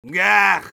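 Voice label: negative vocalization, Spanish; Medic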